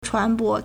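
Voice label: "chuánbó", Chinese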